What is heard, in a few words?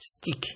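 "stick", Nigerian Pidgin, male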